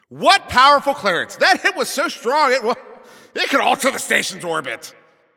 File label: Announcer Long Hit To Other Side